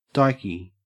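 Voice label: "dykey", Australian English; pronunciation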